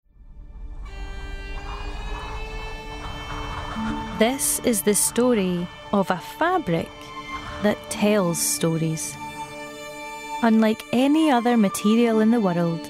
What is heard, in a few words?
Narrative Spinning A Yarn